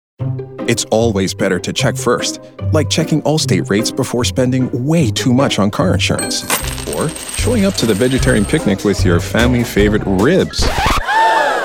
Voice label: voiceover commercial men; Chicago